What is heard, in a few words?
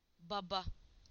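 "dad father", Turkish; PictureDictionary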